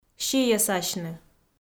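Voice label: "сийӧсасьны", Komi, female; kpv; audio dict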